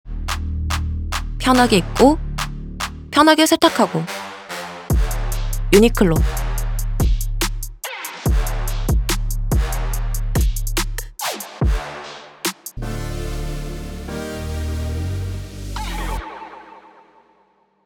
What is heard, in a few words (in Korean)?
일반인 시크한